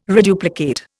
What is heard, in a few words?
"reduplicate", Indian